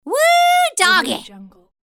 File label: comical female "woo doggy"